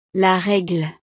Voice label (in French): "la regle", frances; utiles escolares